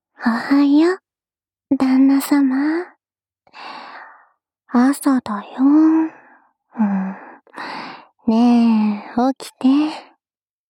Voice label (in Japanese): 「目覚ましボイス」; SE有り